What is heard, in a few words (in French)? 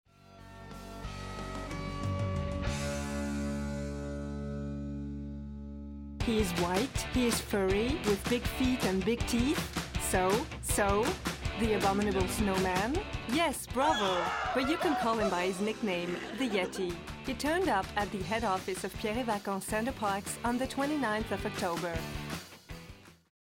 commentaire anglais